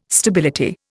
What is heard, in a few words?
"stability", Indian